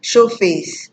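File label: "show face", Nigerian Pidgin, female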